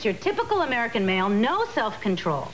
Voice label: voice woman Your Typical American Male no Self Control